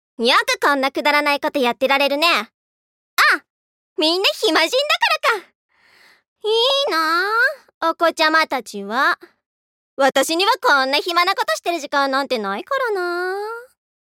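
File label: voice sample, snide middle school student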